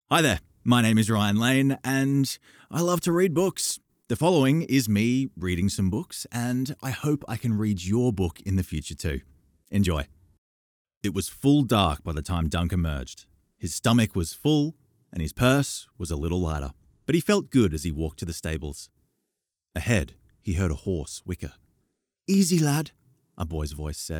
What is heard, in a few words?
Audiobook; Demo Reel 2023